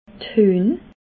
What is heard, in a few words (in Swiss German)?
"huehn", Alsace